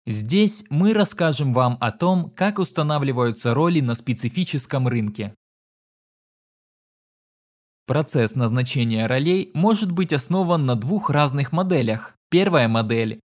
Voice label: russian corporate